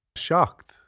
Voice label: "seacht", Irish

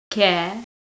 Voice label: "kiare", Manx

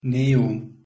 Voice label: "newun", Proto-Germanic

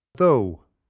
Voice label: "do", Irish

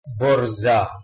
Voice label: "borza", Balochi

